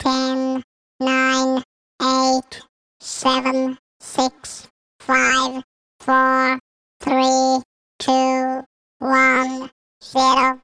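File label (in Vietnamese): âm thanh tiếng robot đếm ngược 10s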